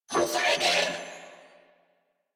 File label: Player Spotted, Female; en; Warframe; New War; Narmer Grineer